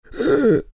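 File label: death, Chinese; dota2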